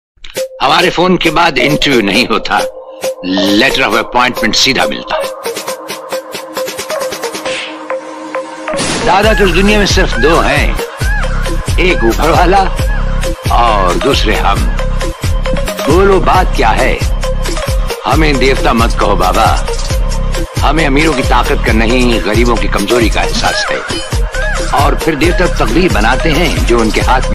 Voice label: dialogue ringtone hindi ringtone; raajkumar; rajkumar